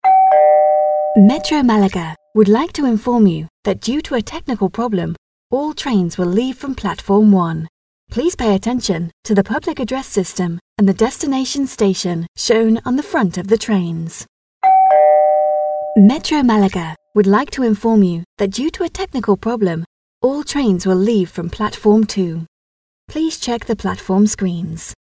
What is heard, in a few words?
metro malaga train announcements